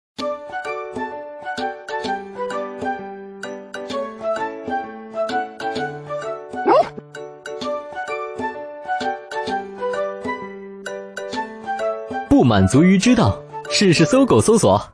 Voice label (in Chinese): 男 广告 搜索; 搜狗搜索